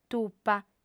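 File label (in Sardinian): "tupa", female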